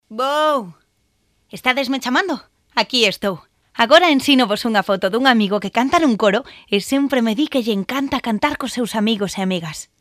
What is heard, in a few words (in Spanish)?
locutora gallega; Galician voice overs